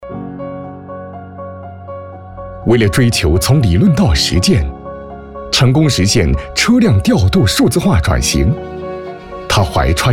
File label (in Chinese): 宣传讲述科技